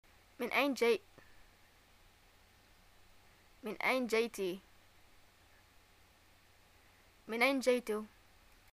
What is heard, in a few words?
where r u from, arabic, ye